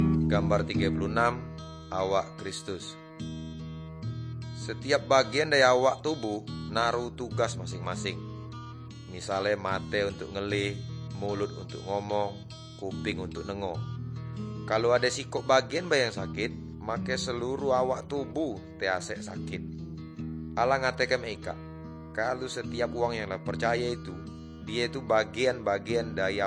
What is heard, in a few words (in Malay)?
good news melayu tanjung raja